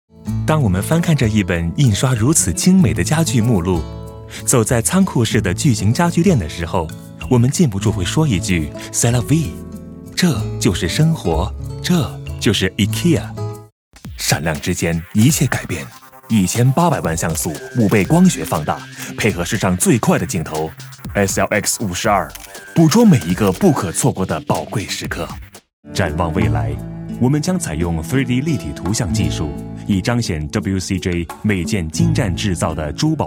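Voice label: Mandarin Megamix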